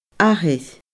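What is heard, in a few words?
"athair", Scottish Gaelic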